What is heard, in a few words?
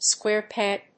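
"square peg", English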